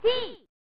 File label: "Si!" (Female); pro mahjong kiwame 2; snes